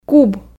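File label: "куб", Komi, female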